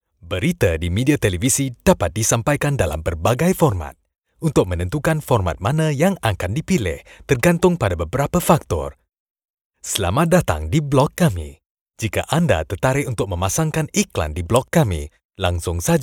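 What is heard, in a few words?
Malaysia, male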